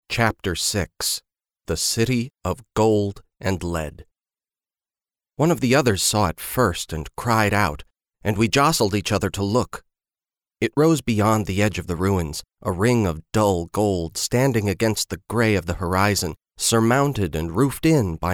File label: Audiobook Young Adult Fiction